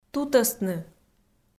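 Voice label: "тутӧстны", Komi, female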